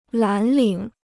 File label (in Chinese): "蓝领", Chinese